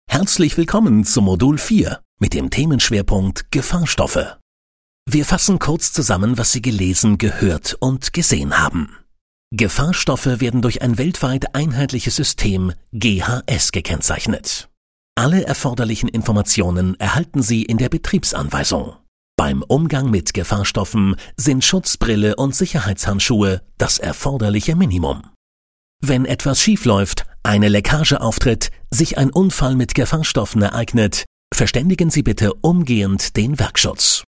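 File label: German, male, elearning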